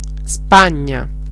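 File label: "spain", Italian; geography; gcompris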